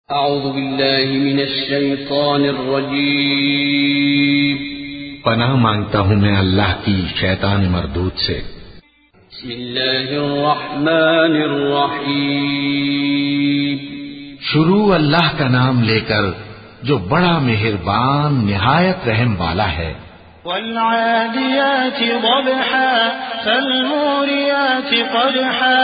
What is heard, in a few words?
Quran Urdu Translate; Aadiyeat; Al Shuraim